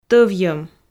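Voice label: "тӧвйӧм", Komi, female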